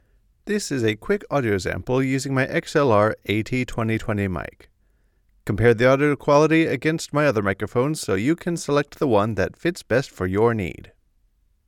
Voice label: AT2020 Sample, microphone